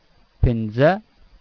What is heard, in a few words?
FIVE, PASTOO